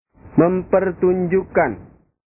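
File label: "mempertunjukkan", Indonesian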